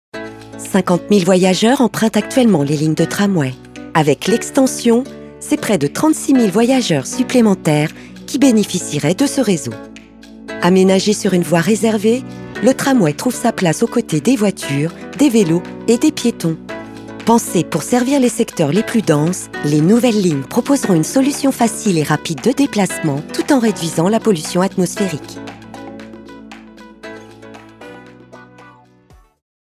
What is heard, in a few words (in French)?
voix off femme fr; Studio Com'un Son; SPOTS RADIOS